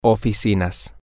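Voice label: Offices, Spanish, male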